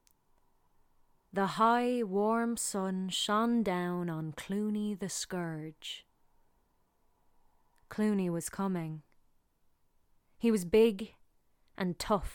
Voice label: AUDIOBOOK